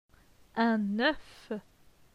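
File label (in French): "Un oeuf", French; phonetique; les voyelles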